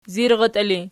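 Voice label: "zirratii" ergative, Archi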